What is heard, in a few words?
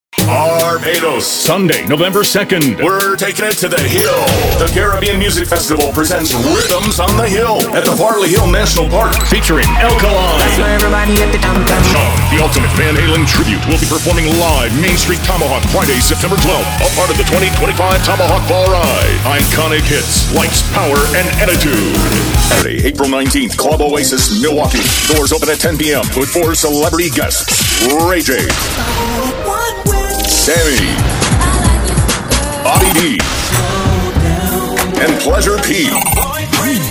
concert, commercial; commercial voice over services with radio and television